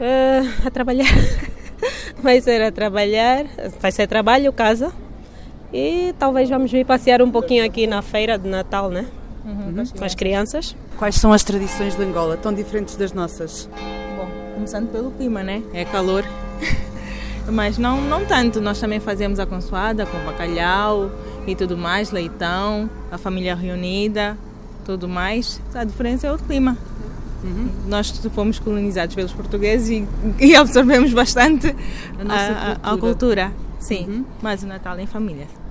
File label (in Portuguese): vox pop; Macedo de Cavaleiros; Natal; Em Macedo de Cavaleiros, o Natal vive-se de muitas formas